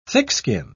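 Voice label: "thickskin", British English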